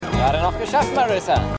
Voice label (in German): Mann Tuer; Manhattan Love Story